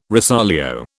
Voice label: "rosolio", British